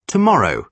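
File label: "tomorrow", British English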